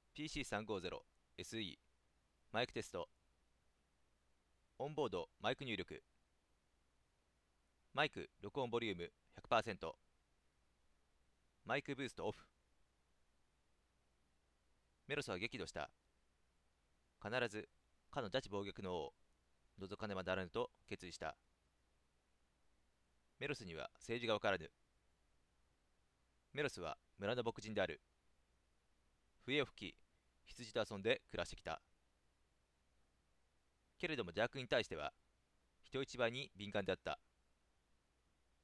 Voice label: mic test